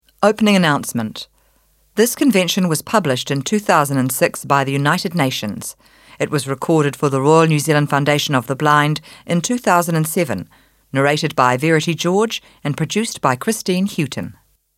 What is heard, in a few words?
opening announcement; UN Convention on Rights of Disabled Persons